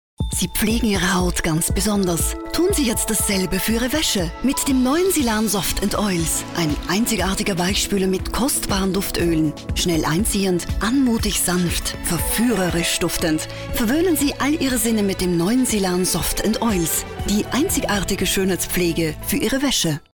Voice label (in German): Frau OFF